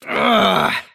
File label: anger; davion; dota; dragon knight